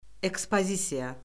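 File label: "ekspozisiya", Azerbaijani; Orfoqrafiya Lüğəti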